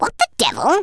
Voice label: "uh oh", Speech; Worms Armageddon